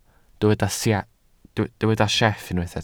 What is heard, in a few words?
"sieff", Welsh